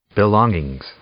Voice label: "belongings", English; noun